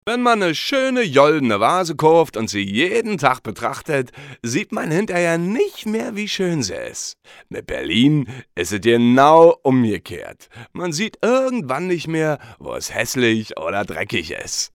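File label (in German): deutsch Dialekt, männlich, Telefonansagen; Sprecher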